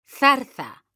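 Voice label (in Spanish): "ZARZA", Spanish, female; mekanta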